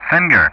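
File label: "Finger", English; Ka-50; Callsign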